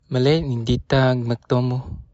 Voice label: long time no see, bonggi